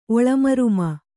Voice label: "ಒಳಮರ್ಮ", Kannada

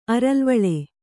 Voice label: "ಅರಲ್ವಳೆ", kannada

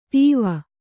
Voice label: "ಟಾವು", kannada

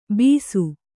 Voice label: "ಬಿಸು", Kannada

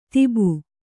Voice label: "ಧೋಬಿ", Kannada

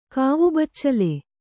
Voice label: "ಕಾವುಬಚ್ಚಲು", Kannada

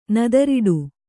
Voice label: "ನೆದರೊಡೆ", Kannada

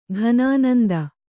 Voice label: "ಘನಾನಂದ", Kannada

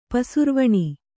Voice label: "ಪಸುರುವಣಿ", Kannada

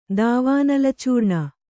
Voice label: "ದಾವಾನಲಚೂರ್ಣ", Kannada

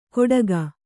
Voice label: "ಕೊಡಗ", Kannada

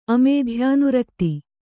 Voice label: "ಅಮೇಧ್ಯಾನುರಕ್ತಿ", Kannada

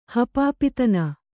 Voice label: "ಹಪಾಪಿತನ", Kannada; hapāpitana; noun; greediness; avariciousness; cupidity

